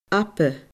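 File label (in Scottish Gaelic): "aba", Scottish Gaelic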